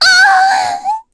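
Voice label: Vox Dead, Korean; Reina; kingsraid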